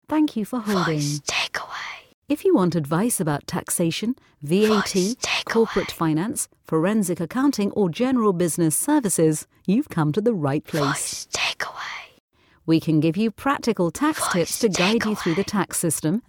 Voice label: Accountants Rich Female